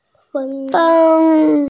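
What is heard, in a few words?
"feng1", Mandarin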